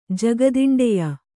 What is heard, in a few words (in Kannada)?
"ಜಗದಿಂಡೆಯ", Kannada